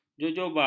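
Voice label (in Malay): "jojoba", Malay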